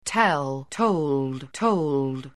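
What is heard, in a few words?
"tell", English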